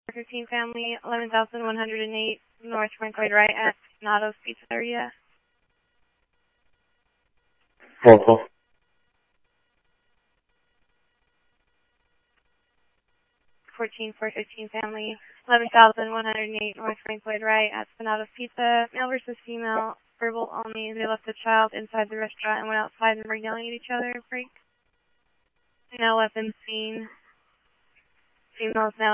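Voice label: Police radio; ScottsdalePolice; H-Scottsdale; SCTSDLE PD - PD2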